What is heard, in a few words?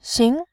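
"xin", Mandarin Chinese, tone 2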